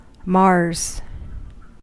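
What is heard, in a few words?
"mars", US English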